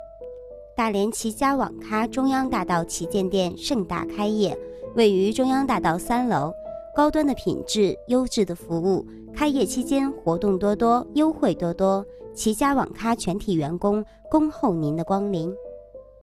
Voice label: 开业语音; 中央大道

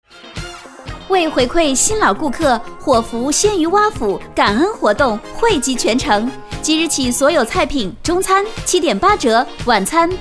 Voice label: 促销; 鲜鱼蛙府促销

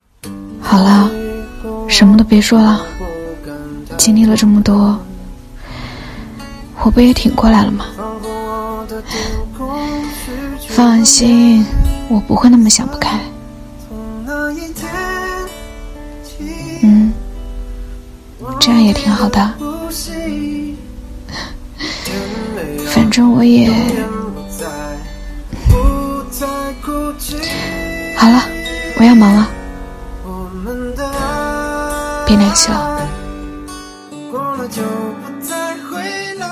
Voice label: 念白

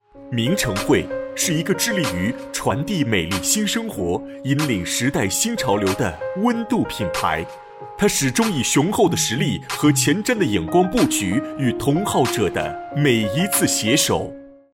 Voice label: 大气广告宣传

